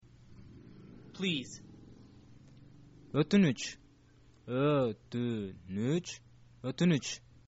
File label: please, kyrgyz